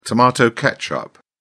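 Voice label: "tomato ketchup", British English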